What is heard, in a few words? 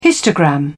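"histogram", British English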